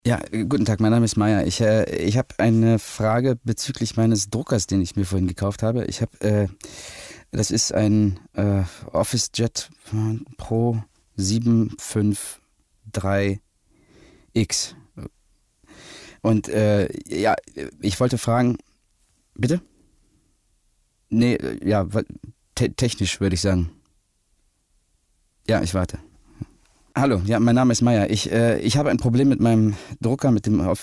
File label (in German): anruferwarteschleife